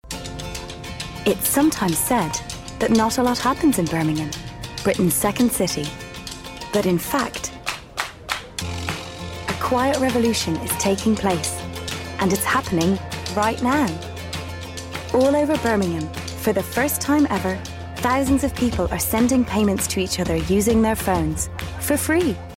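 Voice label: English UK Advertisement; GoLocalise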